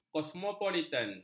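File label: "kosmopolitan", Malay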